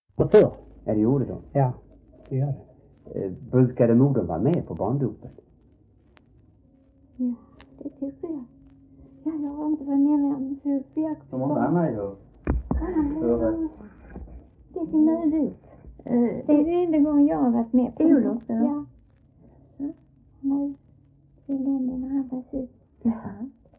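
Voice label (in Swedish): Äspö Skåne, dialekter; Folkminnen; Svenska sagor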